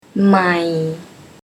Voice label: "Mài", Thai

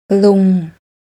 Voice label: "Lung", Thai; desire requesting

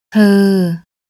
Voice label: "Ter", Thai; desire requesting

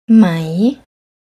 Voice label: "Mai", Thai

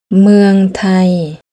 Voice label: "Mueang Thai", Thai; desire requesting

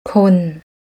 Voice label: "Kon", Thai; test thai tones

